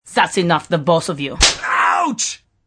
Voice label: Thats Enough Slap, Female; Attitude; VoiceBox

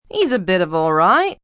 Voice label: Bit Of Alright, female; Talking

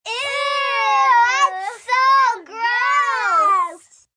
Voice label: Ew So Gross Kids, small group of children; Children Sound Effects

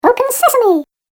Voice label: Cartoon Game Voice Open Sesame; Cartoon Voice Sound Effects

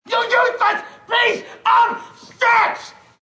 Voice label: Useless Piece Shit, Yelling, Male

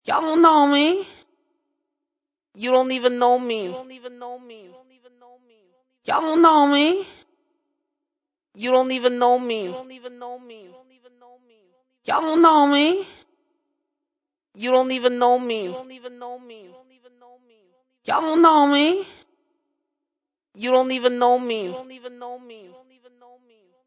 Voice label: You Dont Know Me, Human Vocal; Human Vocal Ringtone; Human Vocal (Sound Effects:Ringtones)

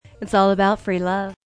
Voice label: Lady Vocal; Talking; Voice

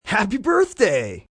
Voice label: Birthday M, male; Holiday; Occasion, event; VoiceBox

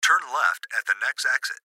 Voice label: Gps Voice, Male

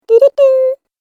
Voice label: Cartoon Game Voice "Didedoo"; Cartoon Voice Sound Effects

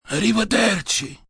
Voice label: "Arrivaderci", Goodbyes, male, foreign, Italian; (see you later)

